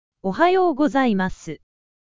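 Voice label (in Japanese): "おはようございます" Japanese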